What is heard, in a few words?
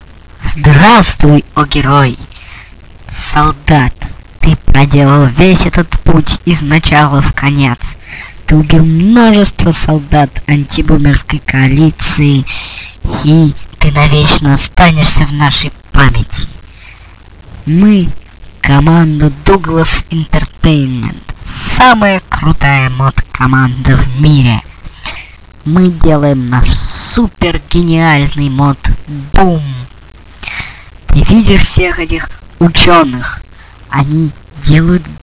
monolog; vox